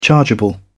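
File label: "chargeable", British English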